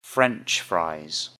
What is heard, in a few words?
"french fries", English; Common Prepared Foods